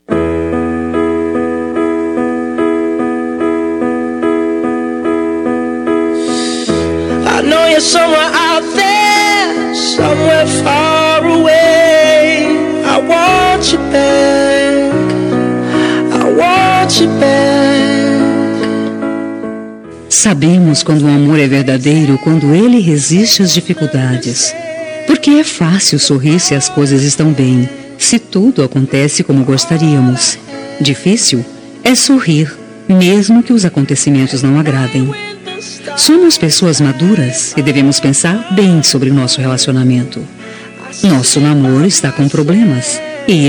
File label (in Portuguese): toque pfem, Toque para Não Terminar – Voz Feminina; telemensagem